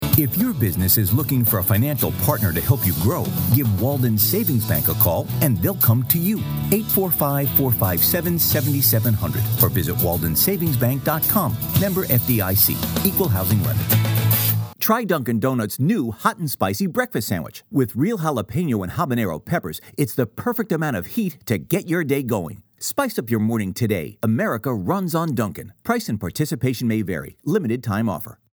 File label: Radio Tag